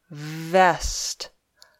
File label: "vest", English